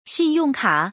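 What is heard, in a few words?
"xin4yong4ka3", Mandarin Chinese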